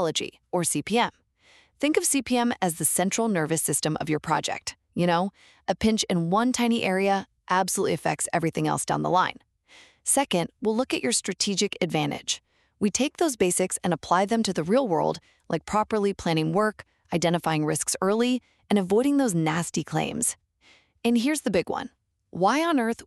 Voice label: Construction Scheduling Fundamentals trailer